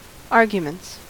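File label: "arguments", US English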